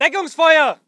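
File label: voice german infantry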